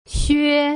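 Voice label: "xue", Putonghua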